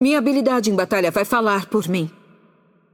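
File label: voice over, Brazilian Portuguese; Yunara Intro; bloom minigame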